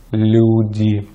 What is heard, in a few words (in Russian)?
"люди", Russian; easypronunciation